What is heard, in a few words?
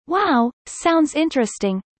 fast